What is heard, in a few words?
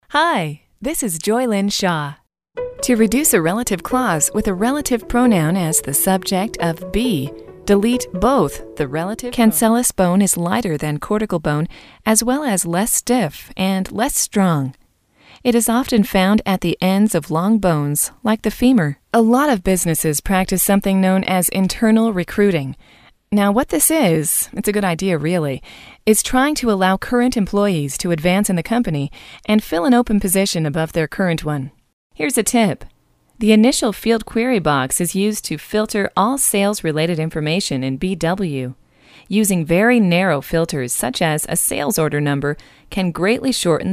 Educational